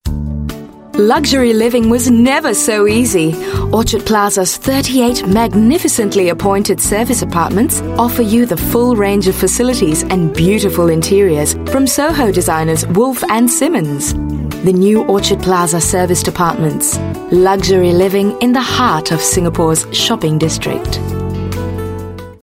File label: Asian English Property